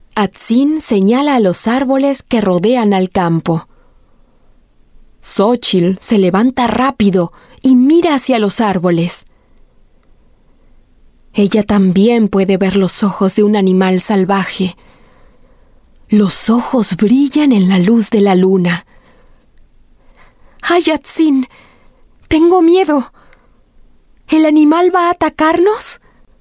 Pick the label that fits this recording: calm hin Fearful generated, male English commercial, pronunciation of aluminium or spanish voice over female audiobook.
spanish voice over female audiobook